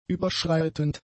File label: "überschreitend", German; adjectives